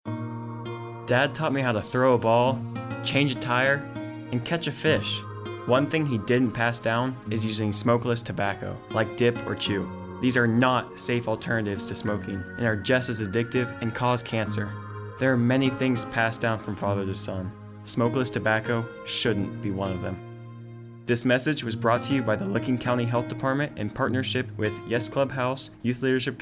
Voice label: Tobacco Prevention Spot; Licking County Health Department